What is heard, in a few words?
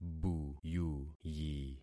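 "buyuyi", concatenated syllables; Affixing experiment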